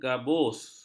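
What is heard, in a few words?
"gabus", Malay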